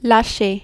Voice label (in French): "lacher", French